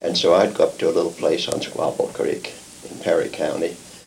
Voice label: Oral history with 71 year old male Kentucky, american english dialect